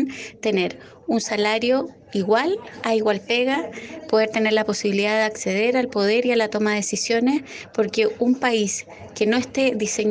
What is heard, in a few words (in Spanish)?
mujer